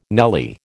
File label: "nelly", American